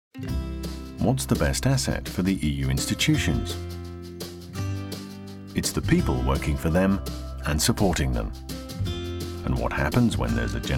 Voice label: corporate; EPSO